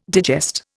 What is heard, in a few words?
"digest", Indian